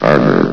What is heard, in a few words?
"armor", vox; Source Engine; bshift